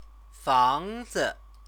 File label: "fangzi", Chinese